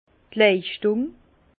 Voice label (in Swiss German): "leischtung", Bas Rhin; vin; rendement